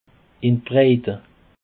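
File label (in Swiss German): "breite", Alsatian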